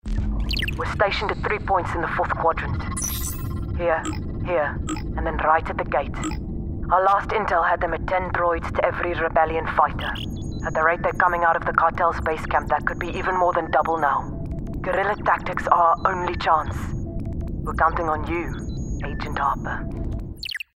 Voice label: Character Space Military South African